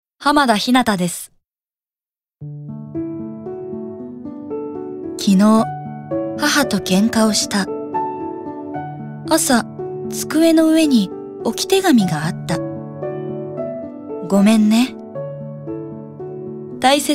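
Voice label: commercial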